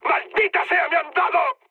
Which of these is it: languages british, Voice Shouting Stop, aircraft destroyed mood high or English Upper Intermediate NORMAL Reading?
aircraft destroyed mood high